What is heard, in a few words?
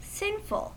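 "sinful", American English; pronunciations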